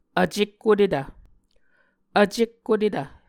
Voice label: "vcekkotetv", muscogee; Mvskoke Words with Repeated Consonants; A Dictionary of Creek/Muskogee; SIL International